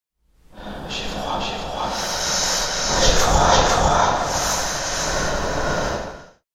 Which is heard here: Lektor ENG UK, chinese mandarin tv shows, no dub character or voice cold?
voice cold